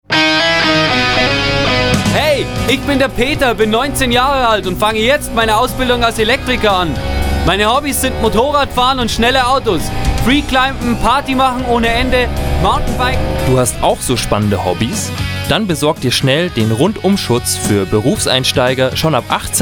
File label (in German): Werbung Sparkasse